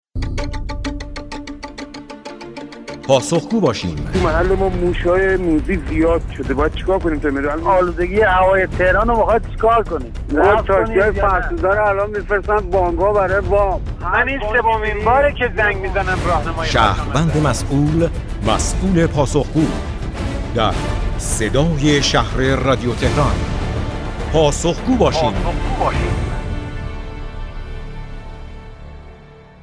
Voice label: narrator